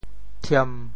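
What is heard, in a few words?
"thiem1", Teochew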